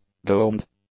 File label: "domed", English; adjectives